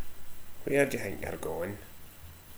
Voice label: wherr, slang

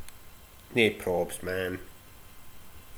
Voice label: "Nae probs", slang

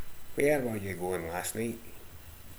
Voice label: "wur", slang

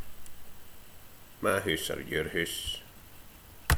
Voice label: "hoose", slang; Ah huvnae seen ye aboot

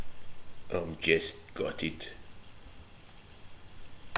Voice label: "jist", slang; Ah huvnae seen ye aboot

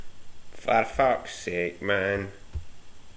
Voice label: "man", slang; Ah huvnae seen ye aboot

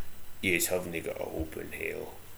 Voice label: "yehs", slang

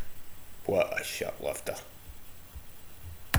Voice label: "shirt lifter", slang; Ah huvnae seen ye aboot

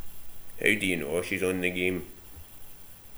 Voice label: "knaw", slang; Ah huvnae seen ye aboot

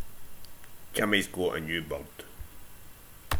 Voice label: "burd", slang; Ah huvnae seen ye aboot

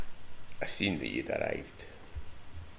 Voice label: "seen", slang

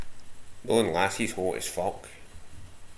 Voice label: "thon", slang

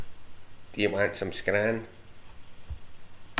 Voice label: "scran", slang; Ah huvnae seen ye aboot

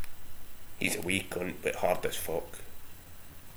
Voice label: "wee", slang; Ah huvnae seen ye aboot

